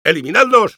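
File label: Engineer go, Spanish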